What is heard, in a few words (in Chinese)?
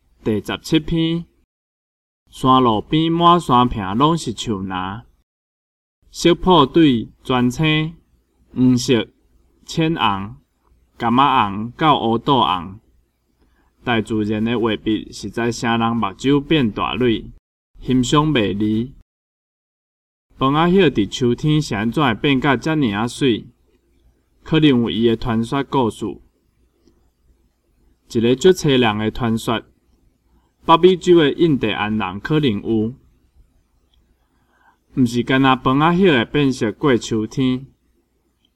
文章朗讀, 閩南語; 閩南語語言能力認證; 金安咱來考C